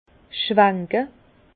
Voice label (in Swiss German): "schwanke", Bas Rhin; vin; rincer